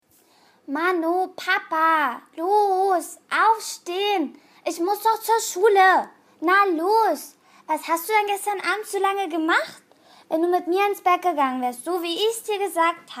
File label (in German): kleines maedchen; LOFT VOICES